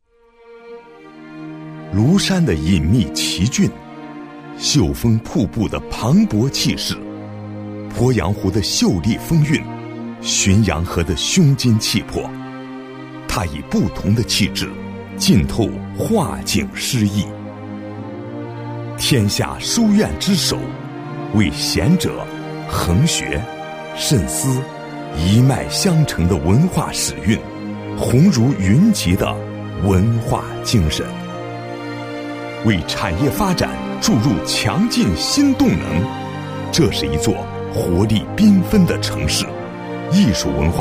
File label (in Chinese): 男 专题 磁性; 企业; 九江